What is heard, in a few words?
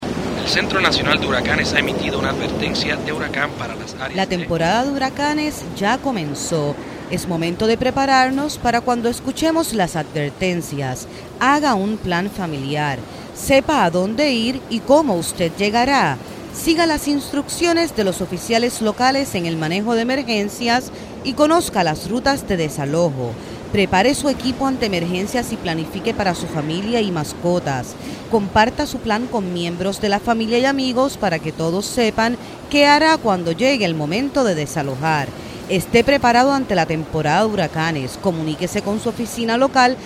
Hurricane SPANISH; fema